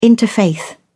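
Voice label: "interfaith", English, British English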